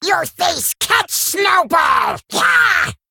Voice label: Male Kobold Attack; en